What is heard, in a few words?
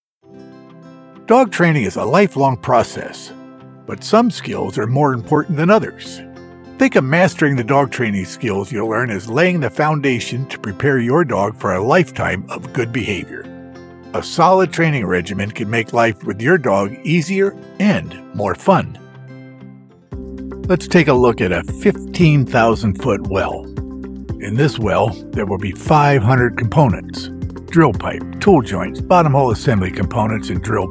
Explainer Video Voiceover